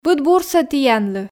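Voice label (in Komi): быд бурсӧ тіянлы, Komi, female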